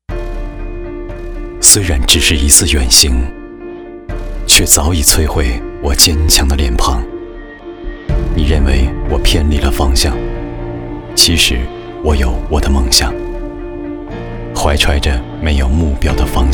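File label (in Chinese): 男国大音-高端-品质（广告配音）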